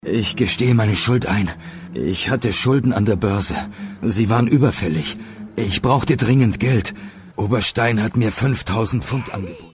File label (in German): hoerspiel